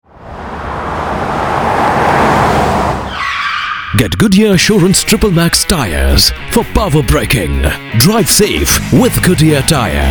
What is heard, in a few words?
Male